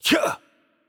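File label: German, attack light; de DE; VO; bloom minigame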